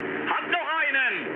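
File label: German player killed enemy mood low, speech; War Thunder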